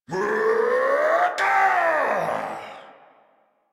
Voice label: angry, en; Warframe; Tyl Regor Boss; Efforts

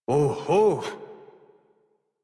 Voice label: Horse Stop Walk Adult; Warframe; Duviri

